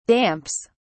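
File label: "damps", British English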